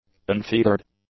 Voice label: "unfeathered", english; adjectives